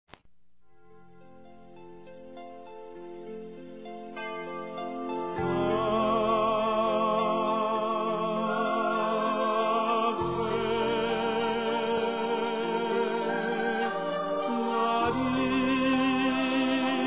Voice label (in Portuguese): ANIV. RELIGIOSO M; ANIV. Evangelico